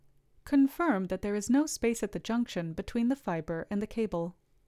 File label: Voiceover